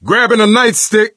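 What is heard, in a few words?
melee weapons, voice, male; Left 4 Dead 2; coach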